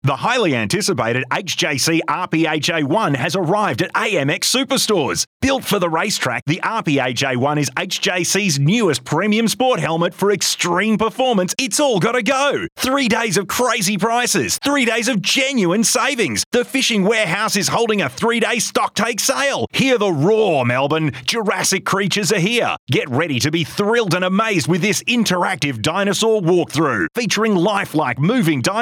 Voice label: Hard Sell